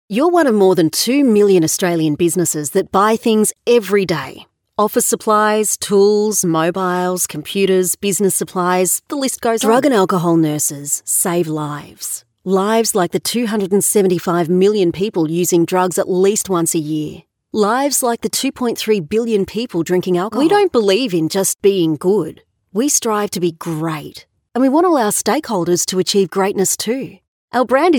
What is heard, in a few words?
natural